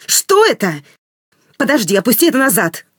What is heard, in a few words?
no weapon, woman, talk; Stalker Anomaly; characters voice human use